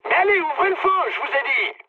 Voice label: attack position mood med, speech fr; War Thunder